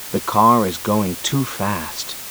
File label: Sentence Noise